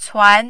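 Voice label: "cuan" Mandarin, tone 2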